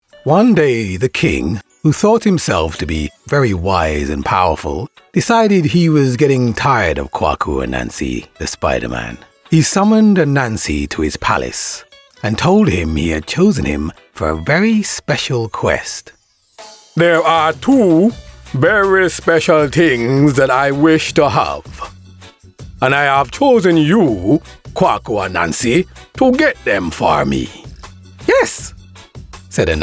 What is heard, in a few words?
Childrens animation